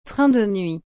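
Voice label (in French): "train de nuit", French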